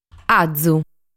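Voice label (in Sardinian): "Azu", Sardinian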